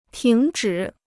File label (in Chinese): "停止", Chinese